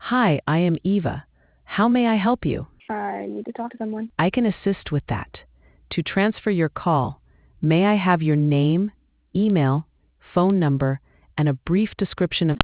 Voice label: conversation